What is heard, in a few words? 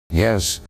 zombie yes; zombie reagan